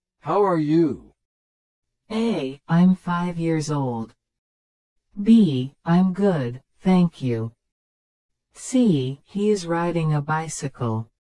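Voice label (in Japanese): スロー, リスニング; You will hear a question or statement and three responses spoken in English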